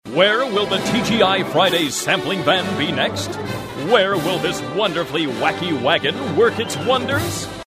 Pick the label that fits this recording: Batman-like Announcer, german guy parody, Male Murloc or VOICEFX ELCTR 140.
Batman-like Announcer